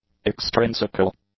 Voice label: "extrinsical", english; adjectives